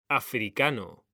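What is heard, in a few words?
"africano", Spanish, male; mekanta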